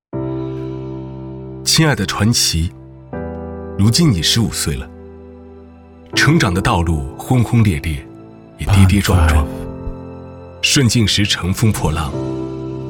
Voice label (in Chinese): 男 品牌宣传片讲述感; 广汽传祺勇者征程